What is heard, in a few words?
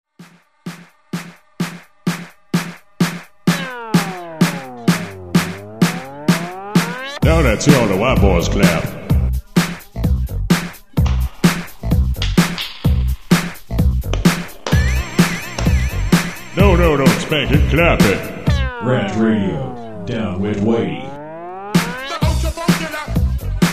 Promo; Ultrafunkula; RantRadio; RantMedia; Station Promos-Listener Submitted